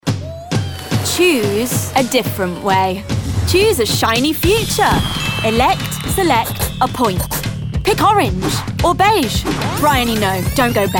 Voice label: Commercial